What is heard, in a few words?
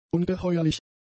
"ungeheuerlich", German; adjectives